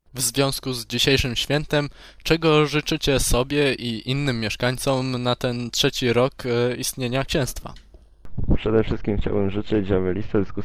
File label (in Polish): wywiad; sarmacja; biblioteka multimedia